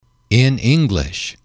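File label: "in English", English, male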